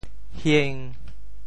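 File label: "hieng5", Teochew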